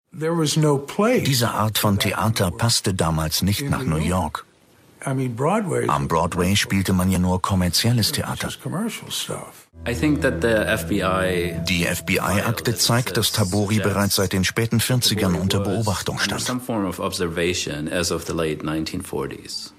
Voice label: Documentary Interviews General public, German voice-over, Explanatory and Clear; George Tabori